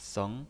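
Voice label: "seng", Mandarin Chinese pinyin voice, second tone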